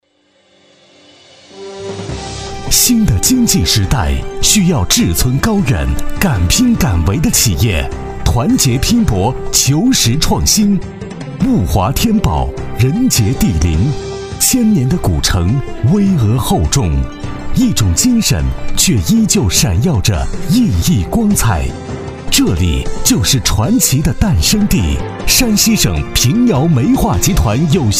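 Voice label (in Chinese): 男S347 国语 男声 专题片-成熟大气 大气浑厚磁性 沉稳; 平遥煤业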